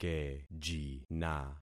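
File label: "kejina", concatenated syllables; Affixing experiment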